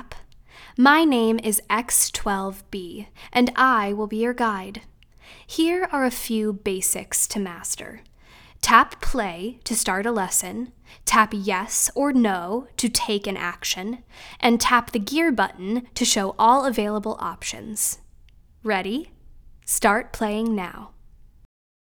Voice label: Animation Video Game Sample VO